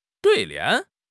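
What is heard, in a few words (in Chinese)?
"对联", Chinese; HSK6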